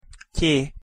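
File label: "ty", Hungarian pronunciation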